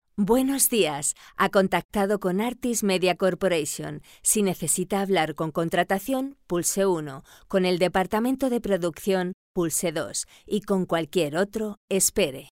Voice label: spanish ivr